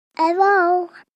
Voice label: 3 Yr Old Girl Says Hi; kids play

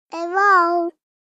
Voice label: 3 Yr Old Girl Says Hi; kids play

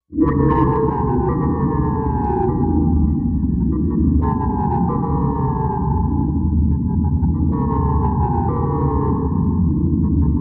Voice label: Calling Anyone Distort, communications

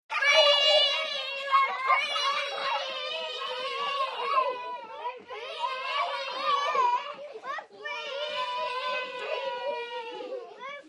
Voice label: 6 Yr Olds Say Were Free

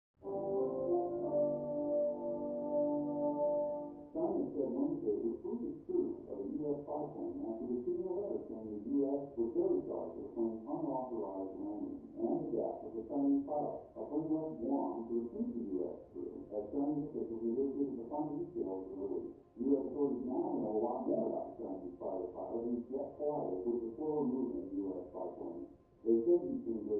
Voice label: Tv Male News Report

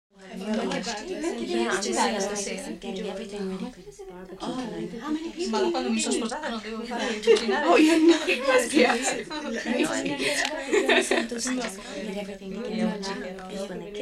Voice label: Italian Amer Fem Wala, chatter walla

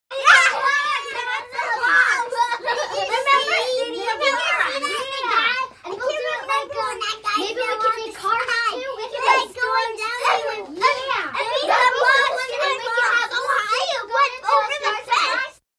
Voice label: 5 Yr Olds Chatter

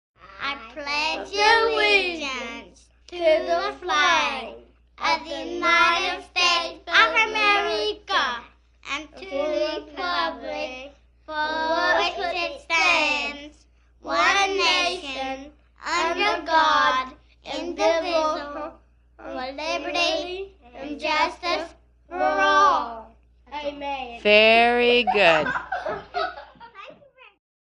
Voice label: Kids Pledge Allegian

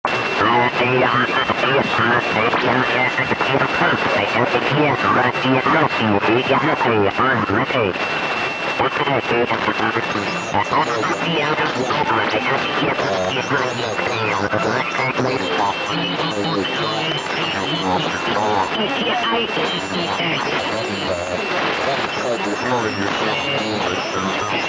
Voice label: Voices Busy Channel, static

